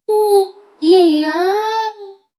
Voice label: a young girl with a, chime

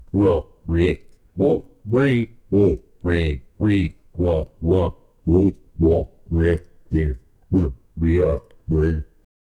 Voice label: deep male voice saying

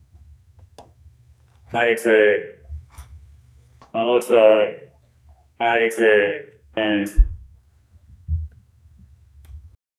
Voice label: a man walking then says, uh oh

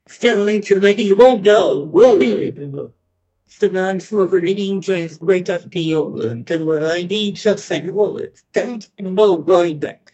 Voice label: sorry he is no more, sadness

